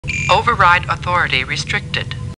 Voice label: Computer Voice; Star Trek; StarTrekLCAR